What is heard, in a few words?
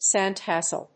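"sandcastle", English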